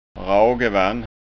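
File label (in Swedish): "ragevann", Skåne dialect Swedish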